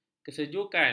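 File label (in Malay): "kesejukan", Malay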